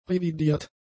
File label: "revidiert", German; adjectives